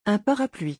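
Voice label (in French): "Un parapluie", French; vocabulaire; le lexique des vacances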